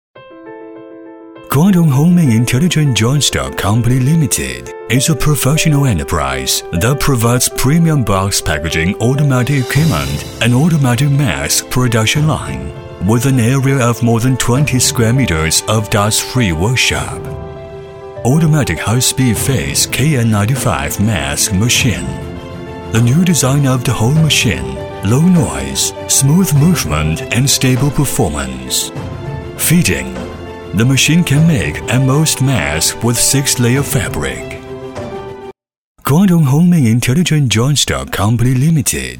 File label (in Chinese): 男英 外语 国内英语 科技专题 自然